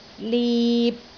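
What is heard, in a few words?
"lip6", Cantonese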